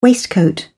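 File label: "waistcoat", British English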